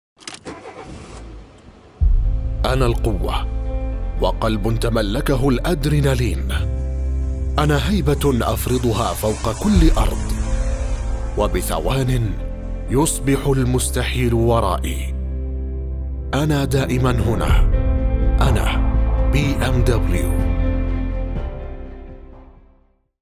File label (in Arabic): arabic commercial